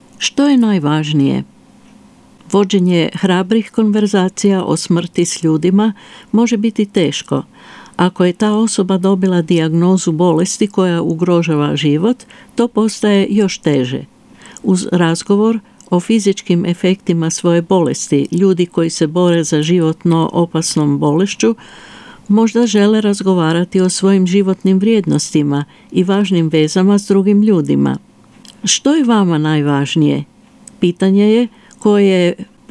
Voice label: Croatian; Palliative Care; What Matters Most